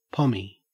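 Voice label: "pommy", Australian English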